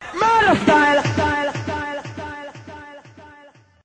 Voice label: murder style ragga vox, Rastafarian